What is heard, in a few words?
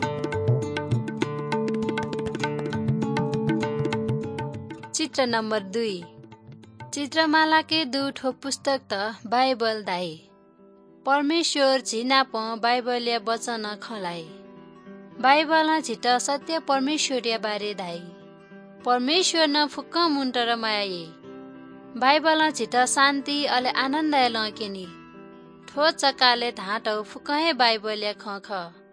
good news newari balami